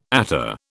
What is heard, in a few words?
"attar", British